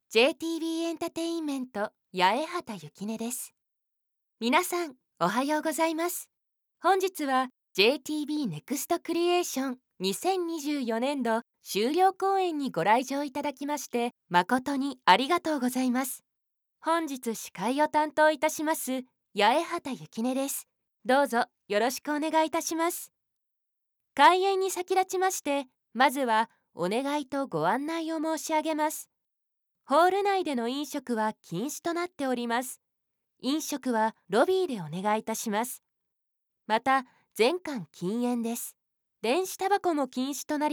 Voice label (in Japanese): イベントMCボイスサンプル; jtb-ent-voice